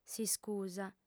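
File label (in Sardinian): "s'iscusa", female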